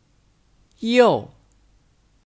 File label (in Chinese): "又", Yòu